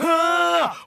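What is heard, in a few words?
"huh", vocal sample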